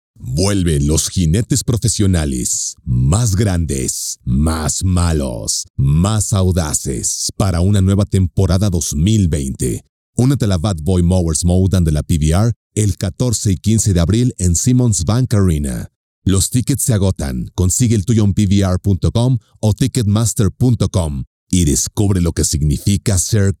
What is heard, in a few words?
spanish latin american announcements; Spanisch (Mexikanisch); Mexiko